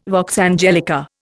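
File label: "vox angelica", Indian English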